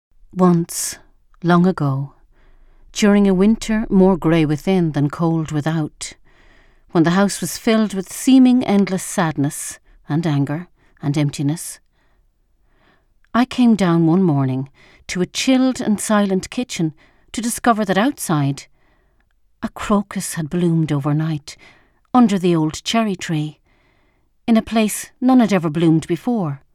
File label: Narration